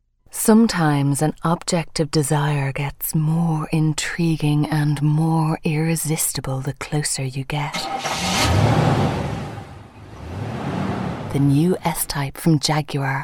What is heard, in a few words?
Commercial; Jaguar; Vocal Point